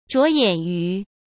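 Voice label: "着眼于", Mandarin Chinese; hsk; chinese-flashcards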